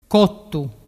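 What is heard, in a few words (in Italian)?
"cottu", dialect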